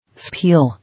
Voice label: "Speel", English